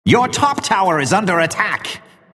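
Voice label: announcer tower attack your top; Dota 2; Mk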